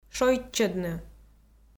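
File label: "шойччӧдны", Komi, female; kpv audio dict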